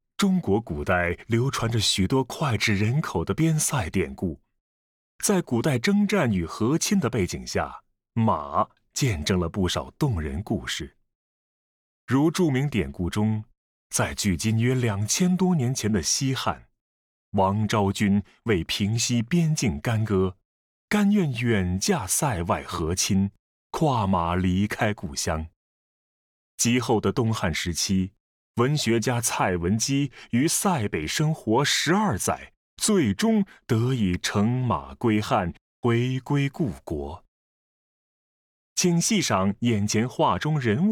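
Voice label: Mandarin, audio guide; 昭君出塞图; 费丹旭（1802–1850年）; 清道光二十三年（1843年）; Heavenly Horse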